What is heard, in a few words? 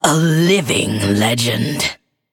Female Forsaken; Guff